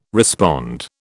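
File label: "respond", British English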